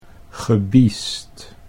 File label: "gebiesd", Dutch